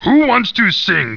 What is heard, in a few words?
annoyed; ships; Who wants to sing?